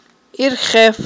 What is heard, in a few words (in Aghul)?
"ирхеф", Aghul